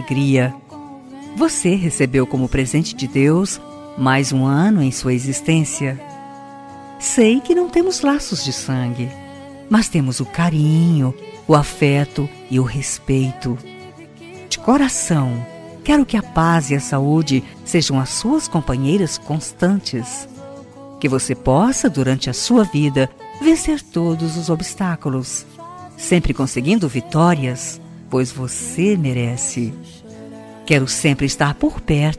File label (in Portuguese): Telemensagem Aniversário Enteada, Voz Feminina